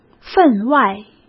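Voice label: "分外", Mandarin Chinese; chinese flashcards; hsk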